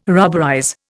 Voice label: "rubberize", Indian

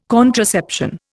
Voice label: "contraception", Indian

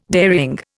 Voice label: "dairying", Indian

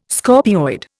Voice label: "scorpioid", Indian

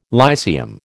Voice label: "Lyceum", American

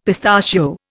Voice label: "pistachio", Indian; Dictionary

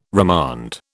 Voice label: "remand", British

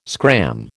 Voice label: "scram", American

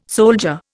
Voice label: "soldier", Indian

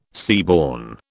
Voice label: "seaborne", British

